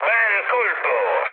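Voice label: Italian player killed enemy mood high, speech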